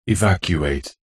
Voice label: science fiction computer voice male says evacuate; zapsplat

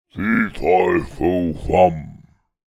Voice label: fantasy giant male say fe fi fo fum; zapsplat

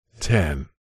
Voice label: radio prod male voice says ten processed

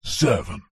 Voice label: radio production voice male processed deep says 1

